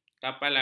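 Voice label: "kapalan", Malay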